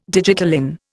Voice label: "digitalin", Indian

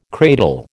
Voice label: "cradle", American

